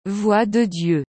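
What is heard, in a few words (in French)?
"voix de Dieu", French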